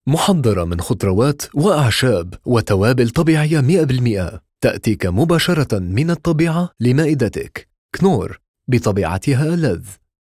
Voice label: arabic